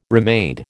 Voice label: "remade", American

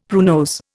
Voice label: "pruinose", Indian; Dictionary

